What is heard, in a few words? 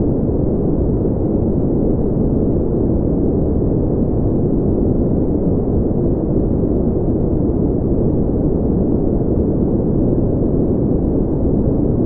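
Voix Off Voice Over, bilingue Américain Français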